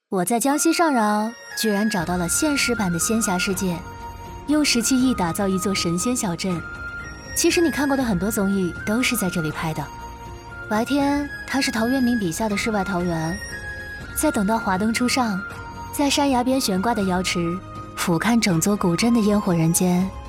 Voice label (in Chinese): 女, 文旅女声样音; 上饶葛仙村; 文旅配音